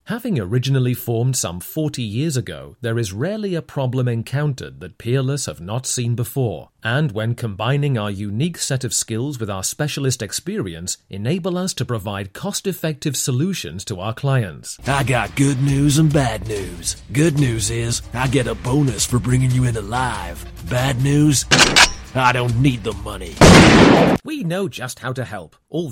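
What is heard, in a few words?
English UK General; GoLocalise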